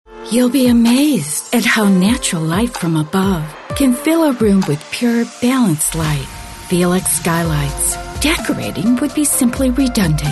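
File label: English, US, female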